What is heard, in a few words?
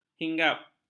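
"hinggap", Malay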